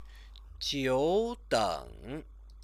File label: "jiudeng", Chinese; Chinese lessons